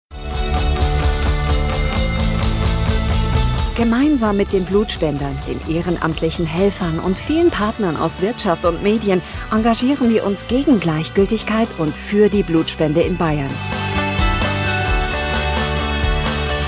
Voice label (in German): Informativ